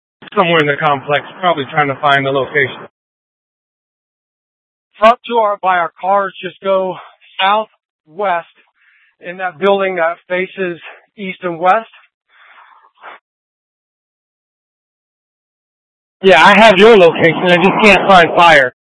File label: Scottsdale PD Sth Dispatch, scanner